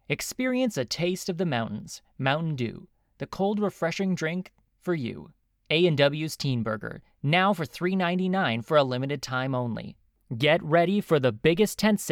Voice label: Commercial VO